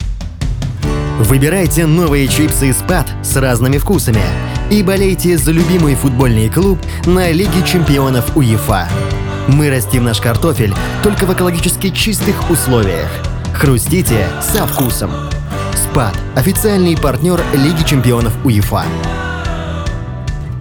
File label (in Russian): запись рекламы чипс